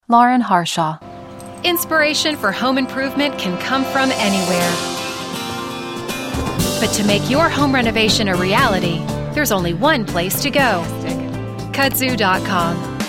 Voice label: F Commercial, voiceover womens

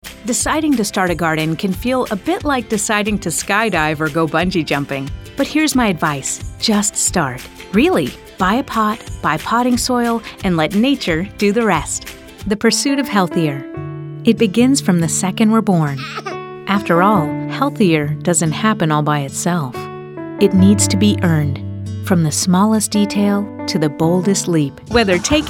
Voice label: voiceover narration women

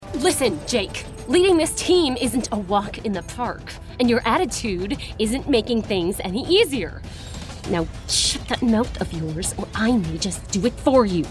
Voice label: voiceover, character, women

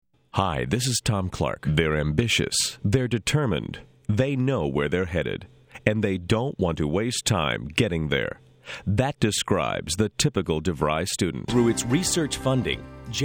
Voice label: voiceover narration men

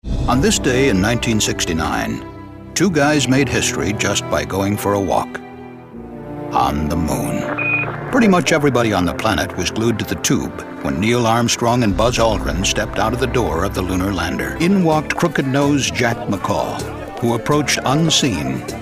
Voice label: voiceover narration men

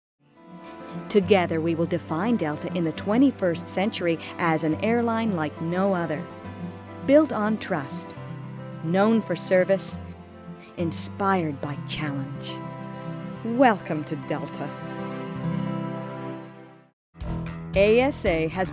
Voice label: narration, women

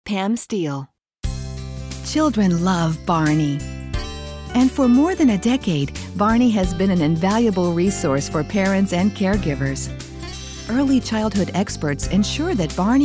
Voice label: voiceover narration, women

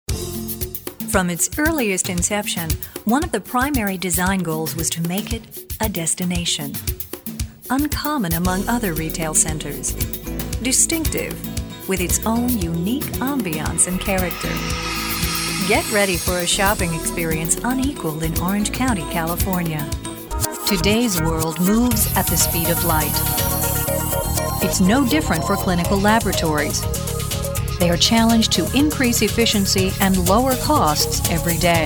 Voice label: narration, women

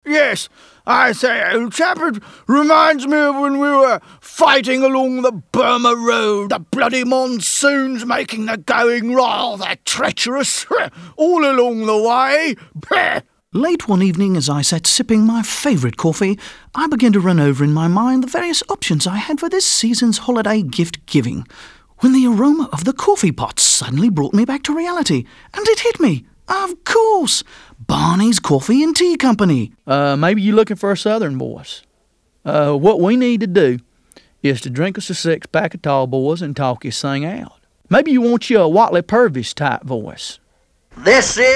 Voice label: Accents

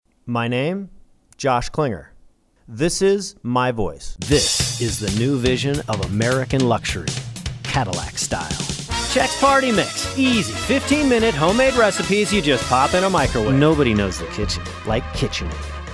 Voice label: M Commercial, voiceover mens

